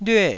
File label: "lyue4", Taiwanese